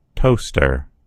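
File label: "toaster", American English; gcompris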